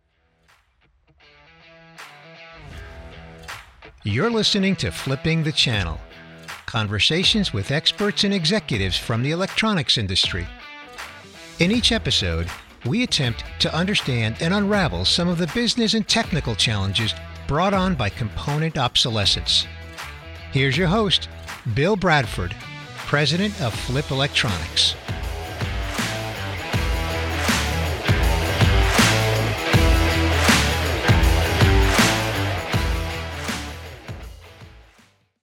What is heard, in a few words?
podcast intro